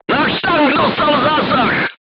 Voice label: voice message player tank hit, crew tanks czech